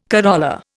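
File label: "corolla", Indian English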